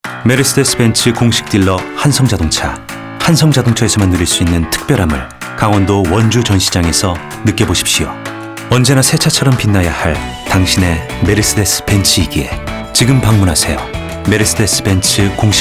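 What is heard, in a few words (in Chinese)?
外籍韩语广告轻快磁性; 梅赛德斯奔驰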